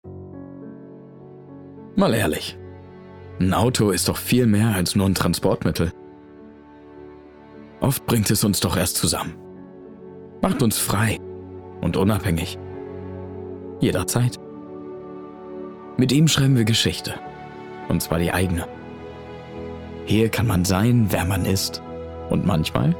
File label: GERMAN; Ford Focus